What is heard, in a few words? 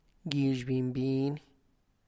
"gie gaiy", Tlacochahuaya Zapotec; dry corn plant